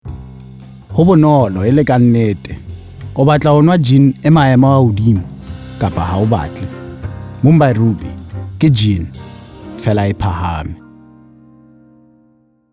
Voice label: seSotho